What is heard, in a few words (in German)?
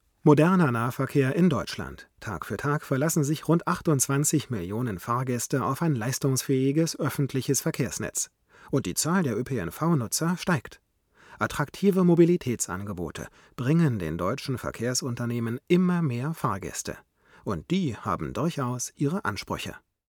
m990 unbearbeitet, Sprecherraum; adt